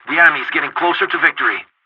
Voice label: pilot tickets lead start enemy, english; War Thunder

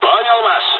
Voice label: dialogs russian; War Thunder

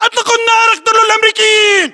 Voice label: order attack infantry, battlechatter, english

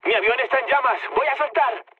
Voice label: on fire mood low; War Thunder

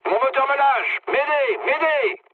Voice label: damaged engine mood low, speech fr; War Thunder

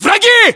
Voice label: threat infantry generic, english

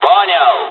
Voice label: dialogs russian; War Thunder

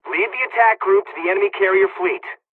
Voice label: fly, czech; dialogs; midway

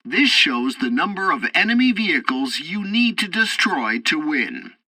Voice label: tutorial fighter ground fight, english; War Thunder

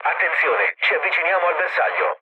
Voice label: near waypoint mood low, speech it; War Thunder

